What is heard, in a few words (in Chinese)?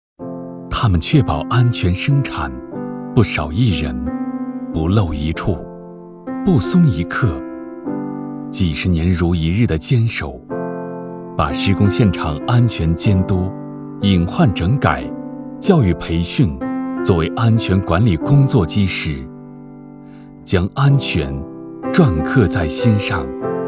男 专题叙述 温暖 大气 关爱